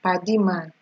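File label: "paddy man", Nigerian Pidgin, female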